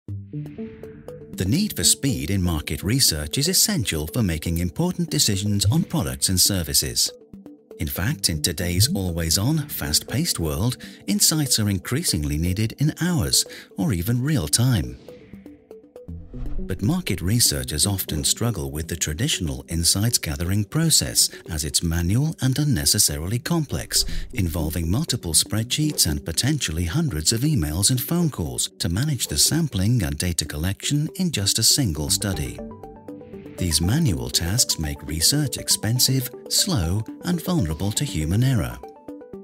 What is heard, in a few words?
British Voiceover, Explainer Video; CINT; Buyer API